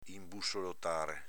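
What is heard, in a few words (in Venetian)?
"inbussolotare", Veneto dialect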